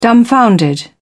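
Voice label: "dumbfounded", British English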